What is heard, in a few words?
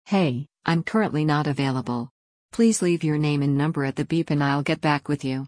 greeting female